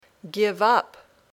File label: "give up", English